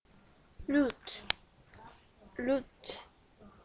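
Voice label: "lute", spoken word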